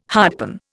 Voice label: "hardpan", Indian